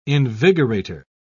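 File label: "invigorator", British English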